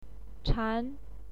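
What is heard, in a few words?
"chan", Mandarin Chinese, second tone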